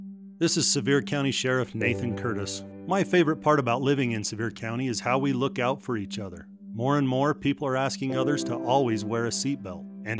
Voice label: Keyleader Radio Ad